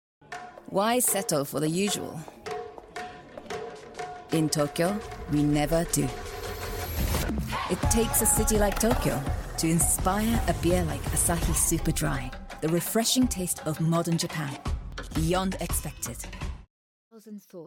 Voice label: Voice reel English

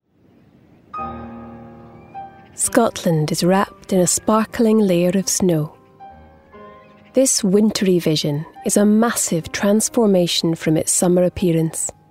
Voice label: Narrative Voice

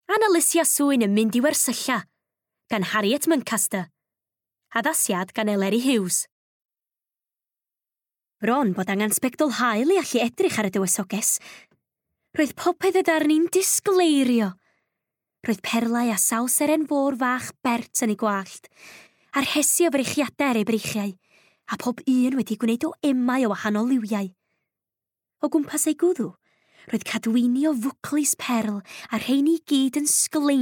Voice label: Book read

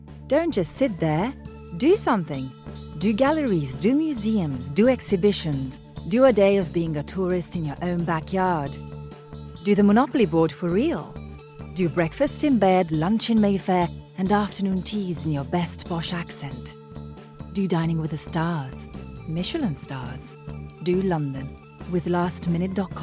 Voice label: English, Romanian, Female, 20s-40s; Eastern European

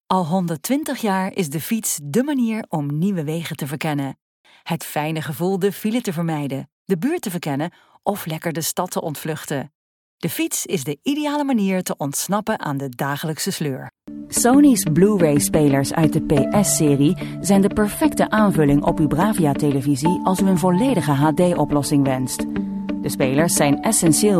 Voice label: Dutch

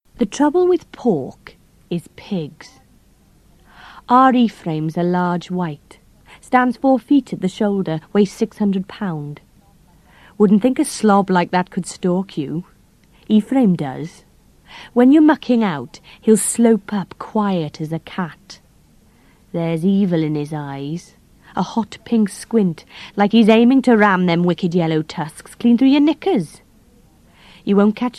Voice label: Welsh

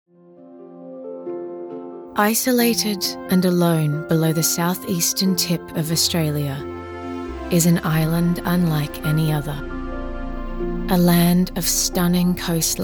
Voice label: DOCUMENTARY

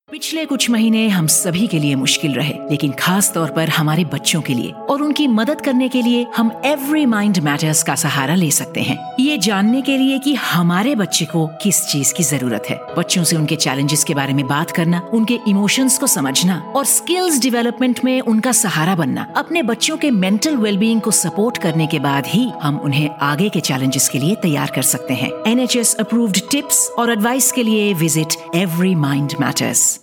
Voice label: Hindi

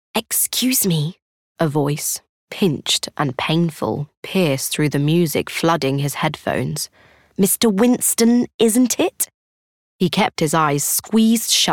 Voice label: audiobook, 20s-30s. Female. London; Studio.

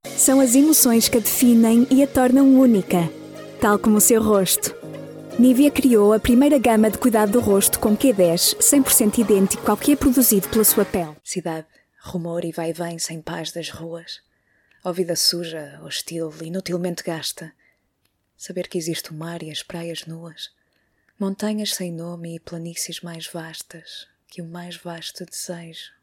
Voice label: Portuguese

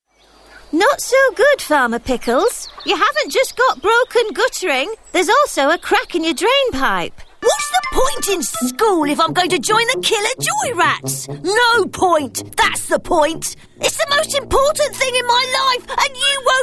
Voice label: Animation

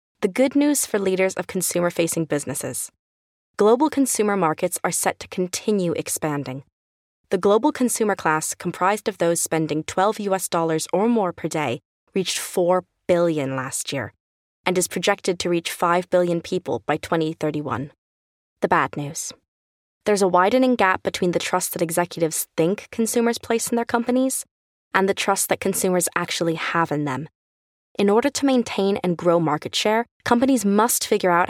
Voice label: Corporate

